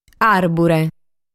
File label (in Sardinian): "Àrbure", sardu